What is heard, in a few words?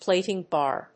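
"Plating bar", English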